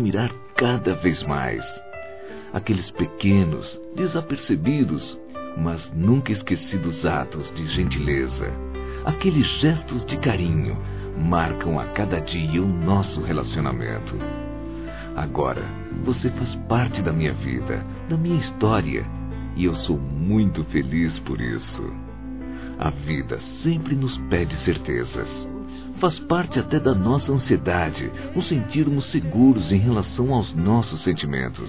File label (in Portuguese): Romantica Aniversário Namorada Masculino, Telemensagens